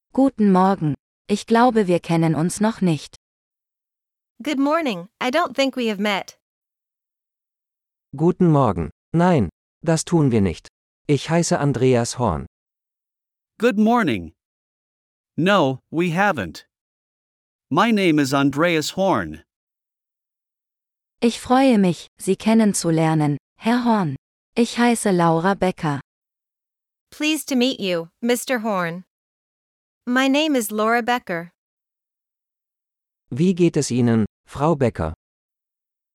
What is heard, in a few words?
Formal Introduction - Dialogue (with translations), German; business; de-en